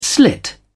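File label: "slit", British English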